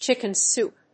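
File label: "chicken soup", English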